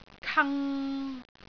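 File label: "kang3", Cantonese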